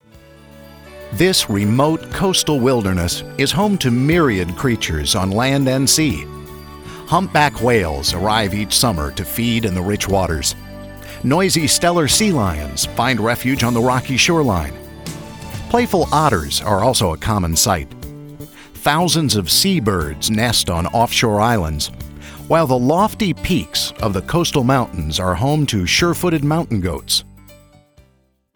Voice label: TRAVEL/NATURE -- Warm, Friendly; alaska

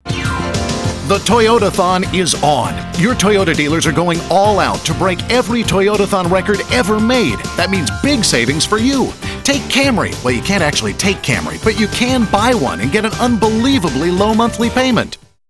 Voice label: AUTOMOTIVE -- Laid-back; toyota

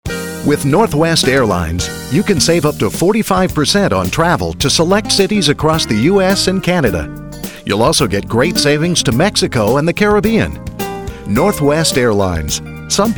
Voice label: TRAVEL -- Corporate, Traditional announcer; voiceover; northwest

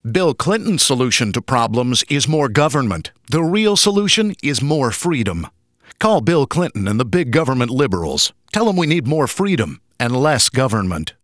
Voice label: RETAIL -- Two-person, Conversational, Dialogue

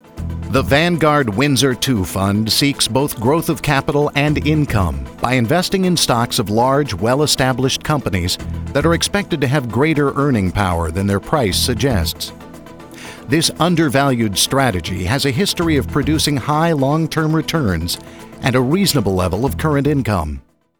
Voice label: FINANCIAL -- Corporate, Authoritative; vanguard

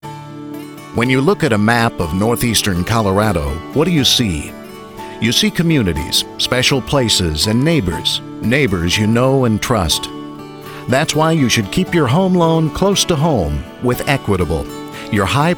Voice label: RETAIL -- Energy, Excitement; equitable

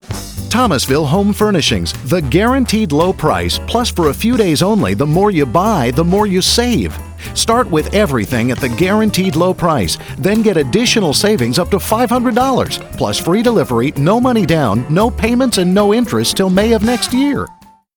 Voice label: FINANCIAL -- Corporate, Authoritative; thomasville

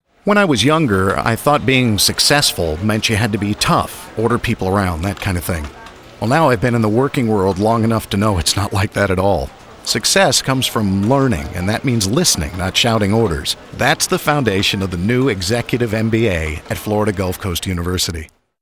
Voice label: FINANCIAL -- Friendly, Sincere; fgcu

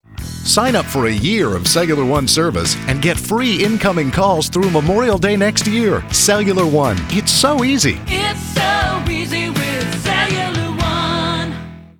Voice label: EDUCATION -- Real guy, Conversational; cellone

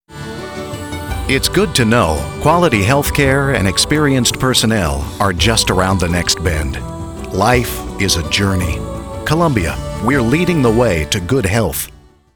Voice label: MEDICAL -- Traditional announcer; columbia

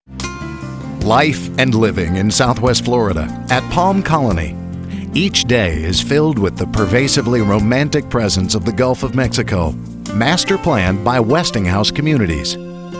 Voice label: REAL ESTATE -- Lifestyle, Warm; palmcolony

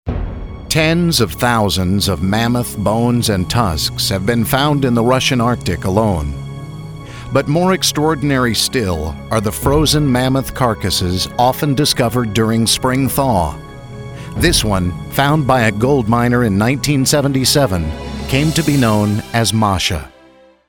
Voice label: NATURE -- Documentary, Authoritative; Narrations; voiceover

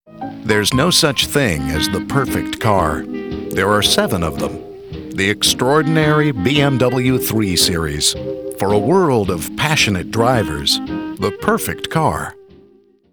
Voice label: FOOD/BEVERAGE -- Warm, Picture-painting; bmw

